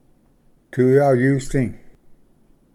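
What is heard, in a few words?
"tuyayusdi", Cherokee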